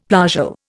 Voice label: "plagal", Indian; Dictionary